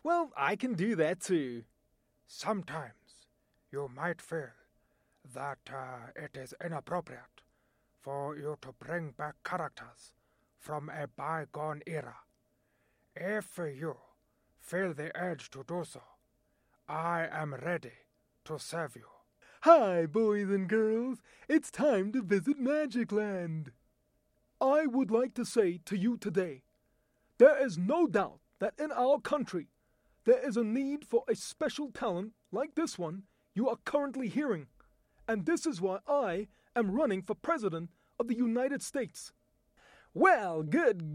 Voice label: Voice Demo